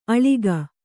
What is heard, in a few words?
"ಅಳಕ", Kannada